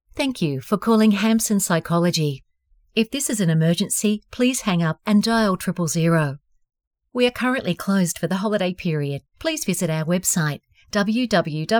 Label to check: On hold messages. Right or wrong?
Right